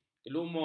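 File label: "kelumun", Malay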